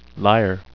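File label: "lyre", English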